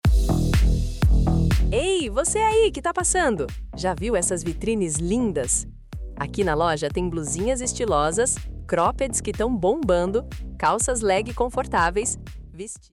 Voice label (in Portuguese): Loja de Roupas Femininas, vinheta comercial voz